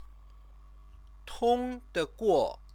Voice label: "tongdeguo", Chinese